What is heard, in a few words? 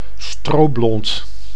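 "stroblond", Dutch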